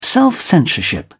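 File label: "self censorship", British English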